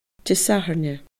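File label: "DiSathairne", Scottish Gaelic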